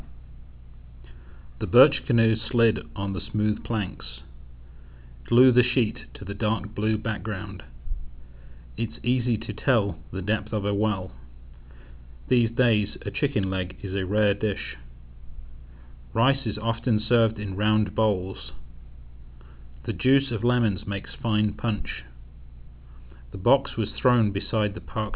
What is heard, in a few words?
british, open speech; uk